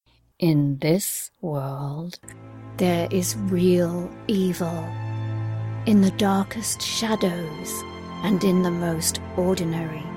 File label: Movie Book Trailer